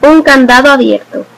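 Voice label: padlock open desc, Spanish; household; stamps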